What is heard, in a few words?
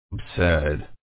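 "absurd", Australian English, male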